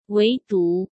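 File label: "唯独" Mandarin Chinese; hsk; chinese-flashcards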